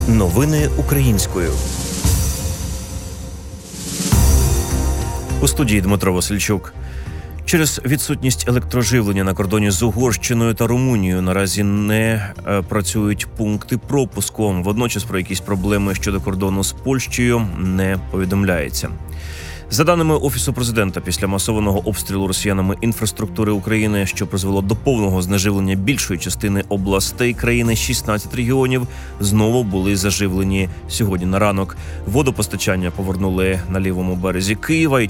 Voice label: Ukrainian Morning News